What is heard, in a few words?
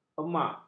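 "emak", Malay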